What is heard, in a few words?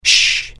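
curious, Italian; mohaa; dialogue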